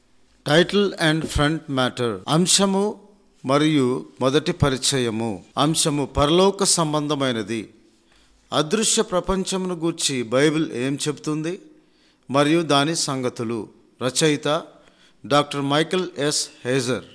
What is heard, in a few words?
supernatural, telugu